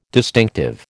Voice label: "distinctive", American